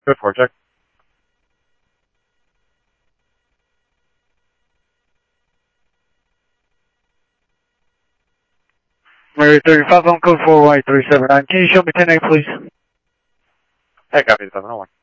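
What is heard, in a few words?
Simulcast police department, scanner audio; Mesa Simulcast; MESA PD 2 Centrl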